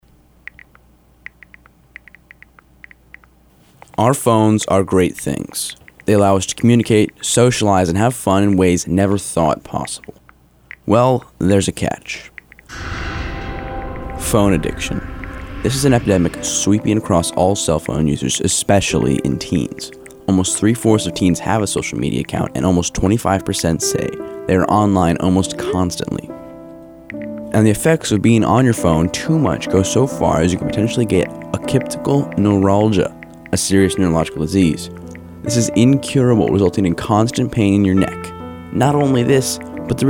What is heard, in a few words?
PSA